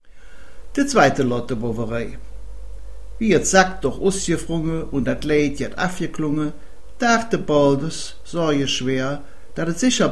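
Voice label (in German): Dialekte Köln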